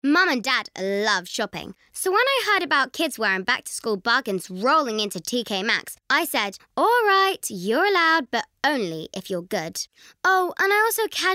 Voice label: Radio Kids Event UK